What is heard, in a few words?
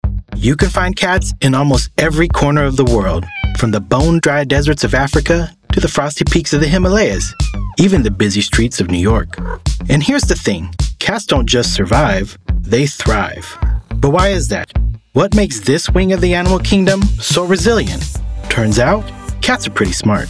Narration